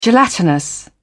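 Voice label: "gelatinous", British English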